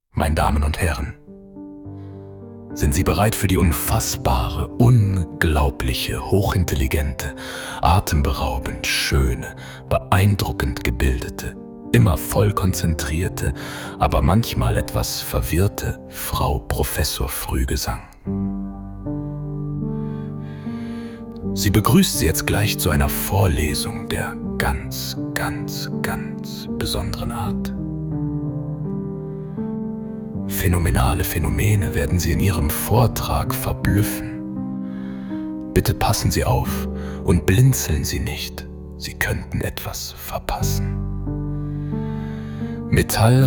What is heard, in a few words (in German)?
Ansage; zauberei und comedy